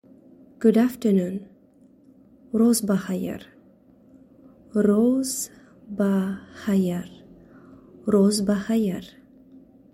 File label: Good Afternoon, Tajik